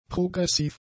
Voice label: "progressiv", German; adjectives